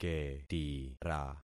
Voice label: "ketira", concat syllables; Affixing experiment